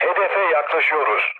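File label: Turkish near waypoint, mood low, speech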